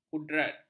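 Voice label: "kudrat", Malay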